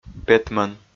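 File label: "петмӧн", Komi, male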